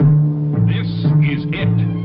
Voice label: "this is it", announcer; Voice